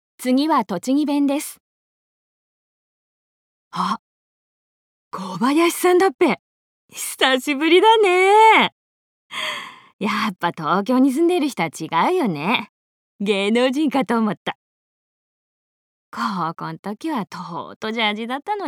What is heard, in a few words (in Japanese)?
方言